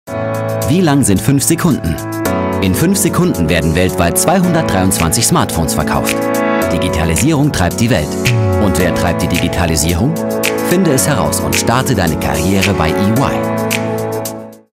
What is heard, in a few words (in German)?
aufgeweckt jung Werbung